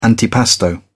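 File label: "antipasto", British English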